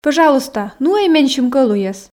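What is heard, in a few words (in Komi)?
пӧжалуйста нуӧй менсьым кӧлуйӧс, Komi, female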